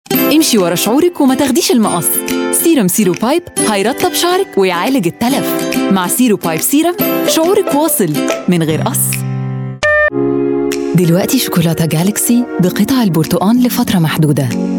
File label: arabic voice over female commercial